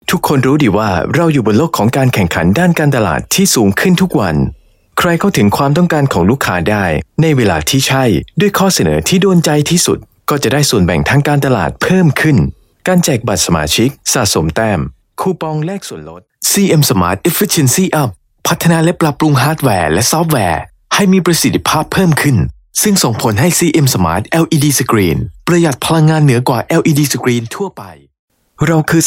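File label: Thai Male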